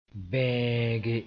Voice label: "bẽege", Mooré